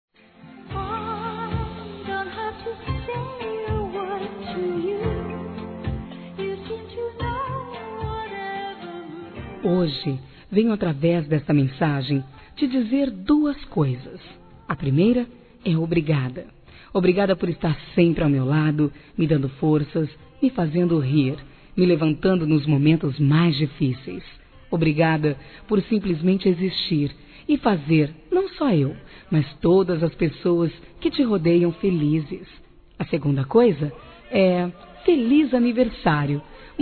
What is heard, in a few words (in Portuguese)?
AGRADEC. NEUTRO F; Aniversários; Aniversários diversos; fem